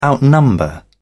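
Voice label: "outnumber", British English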